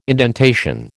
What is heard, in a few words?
"indentation", American